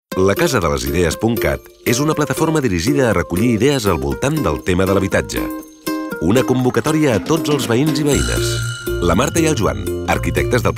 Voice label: male catalan platform comercial; ideasonora